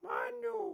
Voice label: Pig Chef, Voices